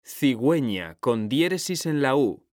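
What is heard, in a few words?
"CIGÜEÑA", Spanish, male; mekanta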